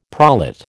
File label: "prolate", American